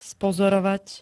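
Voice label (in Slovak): "spozorovať", Slovak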